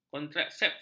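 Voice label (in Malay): "kontrasepsi", Malay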